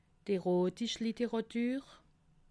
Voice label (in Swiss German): "erotisch", alsace